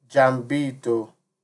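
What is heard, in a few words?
"jambito", Nigerian Pidgin, male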